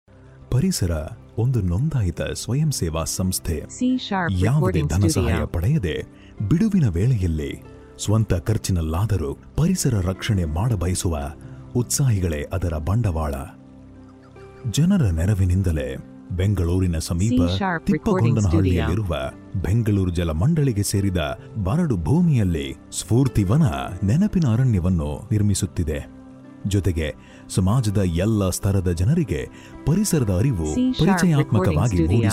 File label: Kannada Male